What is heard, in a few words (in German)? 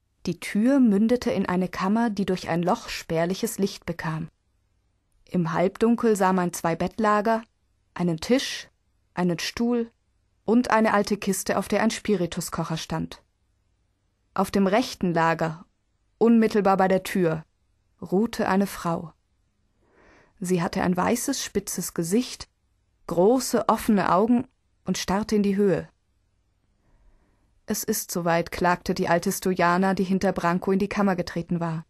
Hörbuch, Mezzosopran; Hamburg